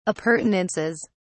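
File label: "appurtenances", British English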